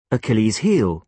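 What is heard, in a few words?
"achilles heel", British English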